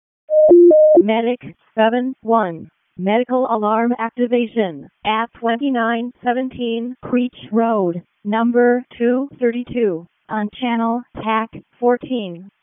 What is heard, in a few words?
EMS ALERT; p25; wake